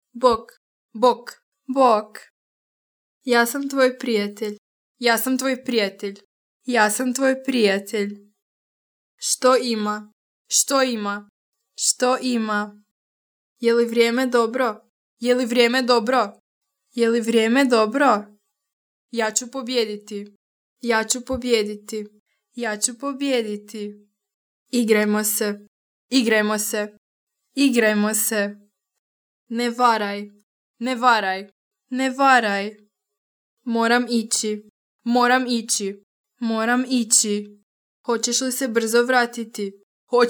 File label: vox cr woman